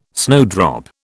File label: "snowdrop", British